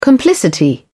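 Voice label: "complicity", British English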